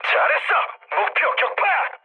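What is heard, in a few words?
Korean bomb success mood med, speech; War Thunder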